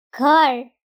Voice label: girl

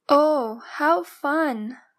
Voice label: girl; grade3

